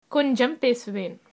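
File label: yes a little, Tamil, female; கொஞ்சம் பேசுவேன்; konjam pesuven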